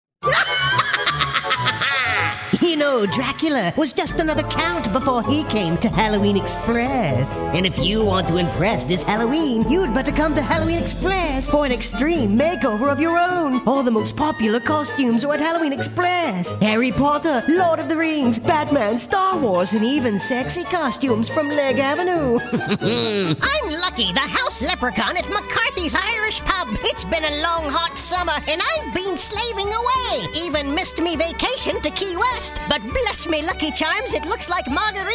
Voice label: Character Animation, commercial voiceover